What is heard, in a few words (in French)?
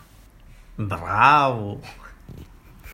espagnol bravo